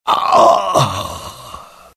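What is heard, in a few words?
death, dialogue generic Italian; mohaa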